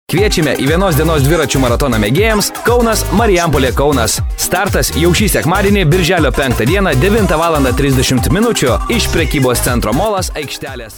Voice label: lt commercial